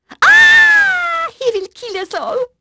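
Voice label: French female; Pirates Of The Caribbean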